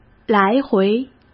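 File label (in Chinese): "来回", Mandarin Chinese; chinese flashcards; hsk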